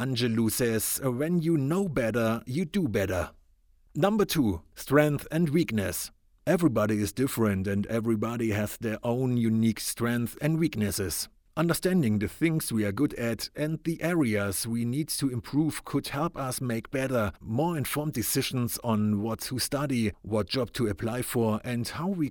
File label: male german english with german accent personality test explainer